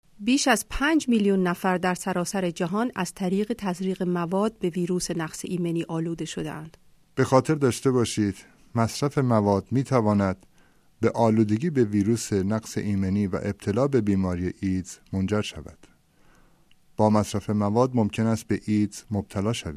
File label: spot farsi